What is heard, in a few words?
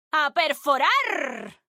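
European Spanish; 探奇（守望先锋2）/终极技能; 欧洲地区; 西班牙语; ¡A perforarrrrrrrr!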